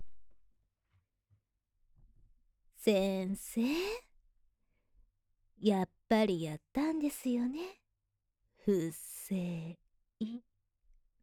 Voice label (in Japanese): otona